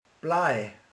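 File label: "ceruza", Hungarian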